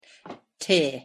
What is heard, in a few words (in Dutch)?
"te", Welsh; thee-in-andere-talen